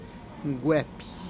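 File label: "gueppih", zapotec; mayas para telar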